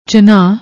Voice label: "jhanaa", Punjabi